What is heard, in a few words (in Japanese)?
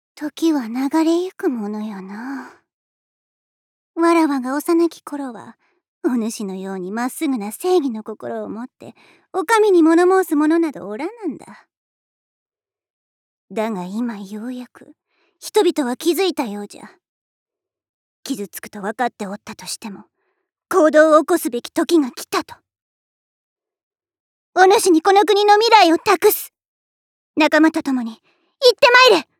ロリのじゃ